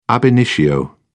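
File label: "ab initio", British English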